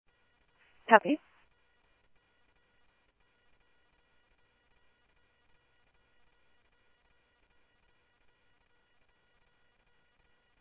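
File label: Mesa Simulcast Police Department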